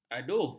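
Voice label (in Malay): "aduh", Malay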